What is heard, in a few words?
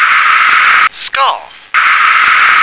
"scarf", English